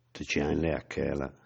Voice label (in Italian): frasi in bergamasco